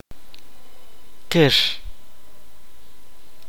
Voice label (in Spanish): "pescado", Kaqchikel